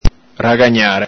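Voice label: "ragagnare", Venetian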